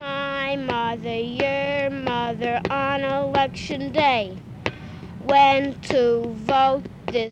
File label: Political street speakers and kids; tony schwartz